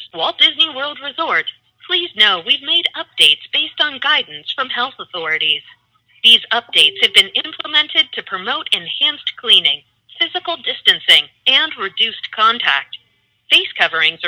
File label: Safety Phone Line Announcement; Disney